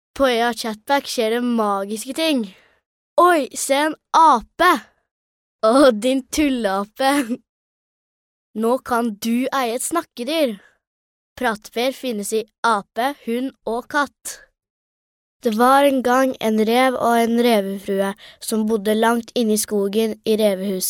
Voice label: norvegian girl